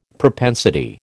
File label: "propensity", American